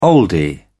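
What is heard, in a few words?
"oldie", British English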